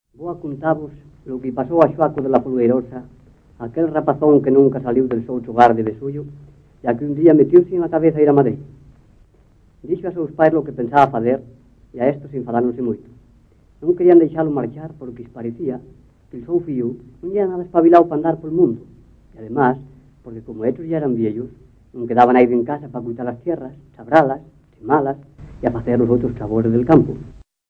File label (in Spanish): asturiano occidental; Western